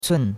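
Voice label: "cun4", Chinese; Cours-de-Chinois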